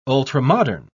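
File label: "ultramodern", British English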